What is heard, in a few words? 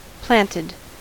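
"planted", American English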